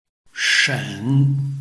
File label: "shen3", Chinese